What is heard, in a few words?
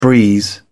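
"breeze", British English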